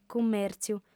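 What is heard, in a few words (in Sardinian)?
"cummèrtziu", female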